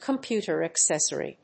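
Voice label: "computer accessory", English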